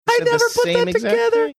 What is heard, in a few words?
high pitched